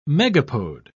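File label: "megapode", British English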